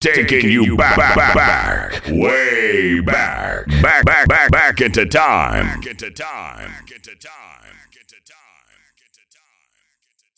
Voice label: Dj Drop Way Back